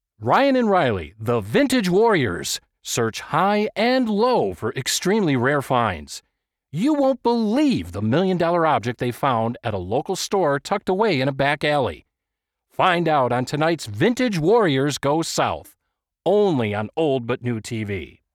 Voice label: TV Spot

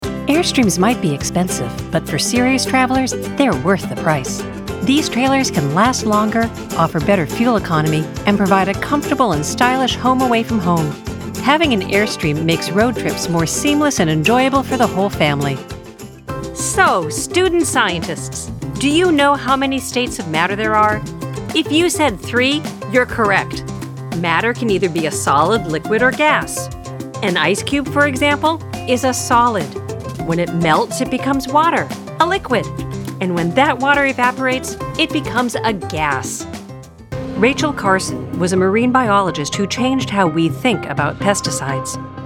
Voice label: Narration

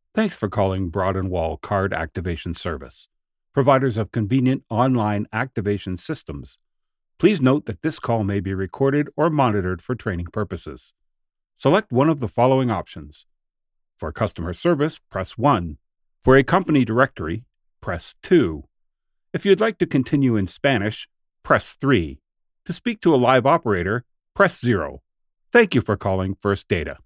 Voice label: IVR

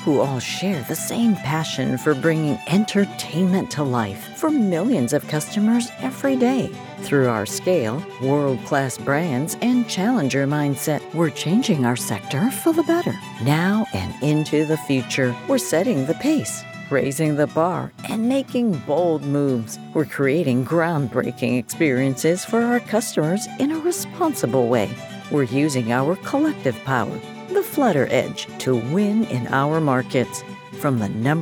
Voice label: Corporate Gaming

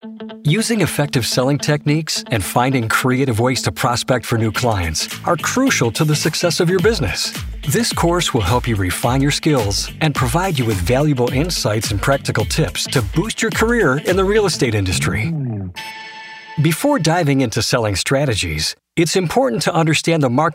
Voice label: eLearning

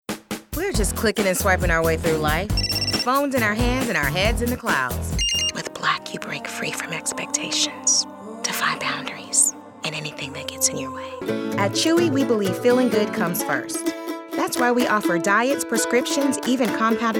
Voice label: Commercial

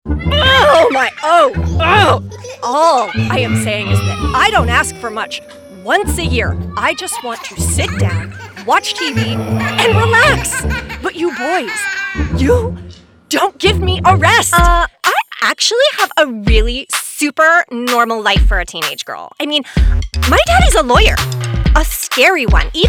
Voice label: Animation

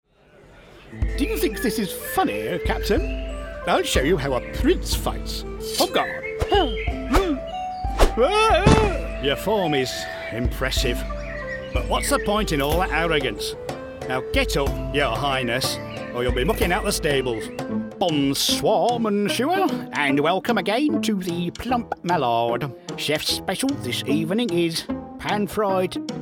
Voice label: Animation; British voice actor; a voice that has been described as "a warm hug"

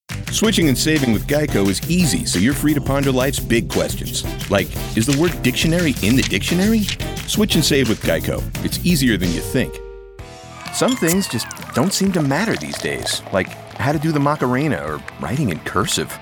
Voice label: Commercial

